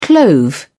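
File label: "clove", British English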